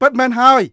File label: Khmer Order; Beyond the Sword; Units